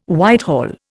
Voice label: "Whitehall", Indian